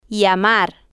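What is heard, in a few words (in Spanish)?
"llamar", spanish, female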